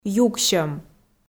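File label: "юксьӧм", Komi, female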